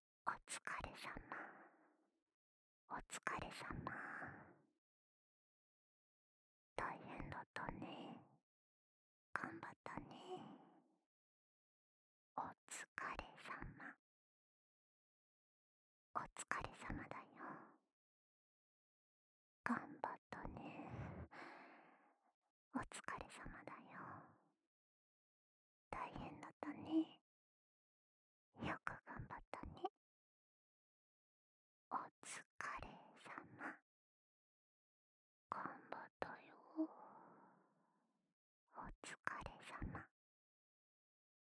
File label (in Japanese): 囁き 左耳 お疲れ様 頑張ったね